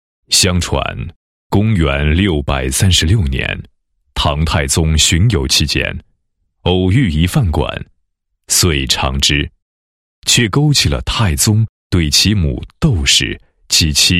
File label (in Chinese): 男 公司企业 食品公司简介; 杠岗香